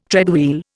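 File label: "treadwheel", Indian English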